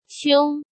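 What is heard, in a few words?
"Xiōng", Chinese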